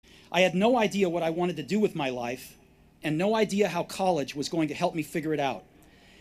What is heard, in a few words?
Commencement Address; Steve Jobs; 2005; Stanford